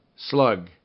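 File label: "slug", English; Rhyme Test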